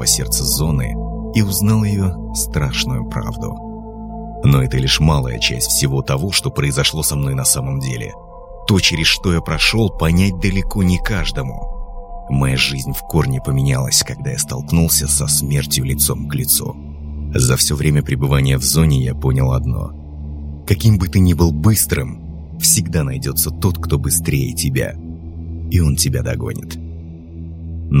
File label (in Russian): Голос Сталкер